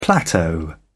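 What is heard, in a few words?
"plateau", British English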